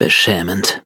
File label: defeat, de; Cookie Run